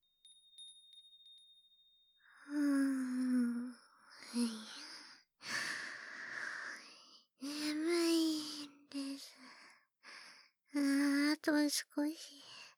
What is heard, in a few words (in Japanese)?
寝起きの添い寝(癒)